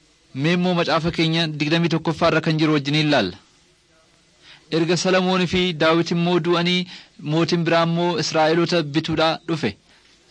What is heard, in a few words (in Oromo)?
oromo wellega; servants of god